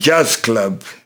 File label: LoFi Words, LoFi Vocals; Vengeance Samples; VVE1 LoFi Vocals